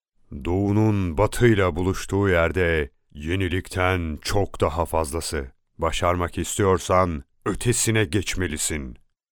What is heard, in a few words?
male turkish voice over talent upbeat